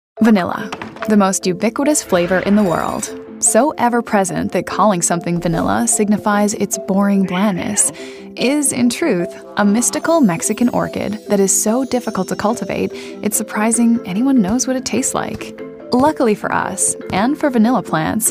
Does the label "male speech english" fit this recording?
No